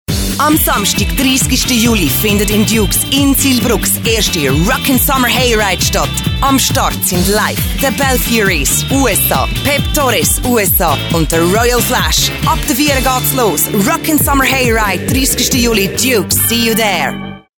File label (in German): Werbung; Dukes Hayride